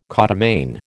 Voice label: "codomain", American

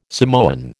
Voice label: "Samoan", American

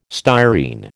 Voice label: "styrene", American

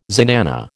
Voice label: "zenana", American

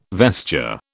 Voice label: "vesture", British

